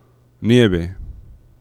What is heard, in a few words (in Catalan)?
"nieve", nasals; laboratori fonetica